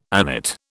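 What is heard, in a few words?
"agnate", British English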